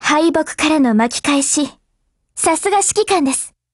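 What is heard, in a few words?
win, Japanese; GF Alarm; Carcano1938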